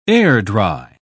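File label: "air-dry", British English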